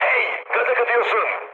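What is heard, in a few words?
Turkish damaged water, mood high, speech; War Thunder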